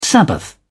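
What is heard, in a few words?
"sabbath", British English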